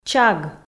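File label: "чаг", Komi, female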